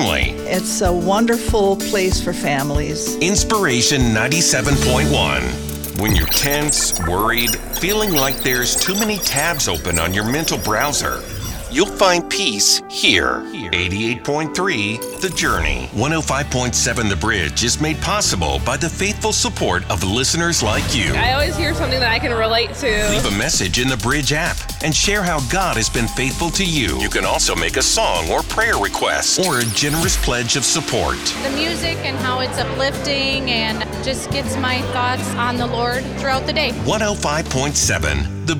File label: Inspirational Praise